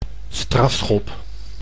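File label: "strafschop", Dutch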